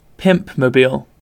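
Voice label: "pimpmobile", British English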